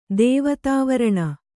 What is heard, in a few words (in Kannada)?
"ದೇವತಾವರಣ", Kannada